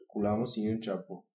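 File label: heron greatblue flying, Bulgarian; animals; birds; tuxpaint-stamps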